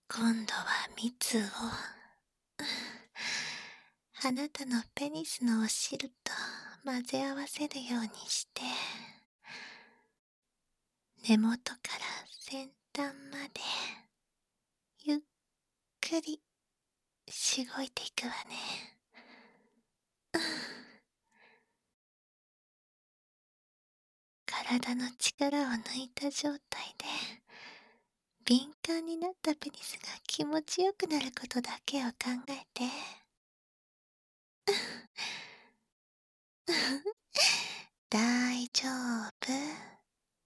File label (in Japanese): キス+耳舐めオイル手コキ（バイノーラルマイク 効果音なし）, ASMR